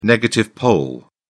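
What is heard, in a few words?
"negative pole", British English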